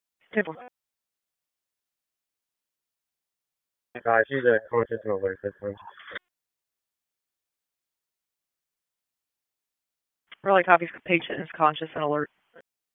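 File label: Police Northeast District Disp, radio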